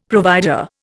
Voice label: "provider", Indian; Dictionary

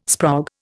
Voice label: "sprog", Indian

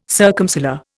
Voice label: "circumsolar", Indian

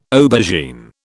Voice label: "aubergine", British

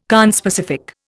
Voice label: "conspecific", Indian

